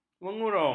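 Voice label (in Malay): "mengurung", Malay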